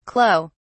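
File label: "clou", British English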